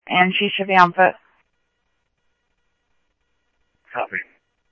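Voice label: Simulcast police department, scanner audio trunk; Mesa Simulcast; MESA PD 3 Red Mt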